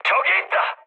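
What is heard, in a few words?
Korean enemy detected mood med, speech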